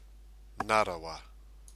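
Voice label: "ngarawa", Māori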